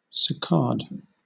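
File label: "saccade", British English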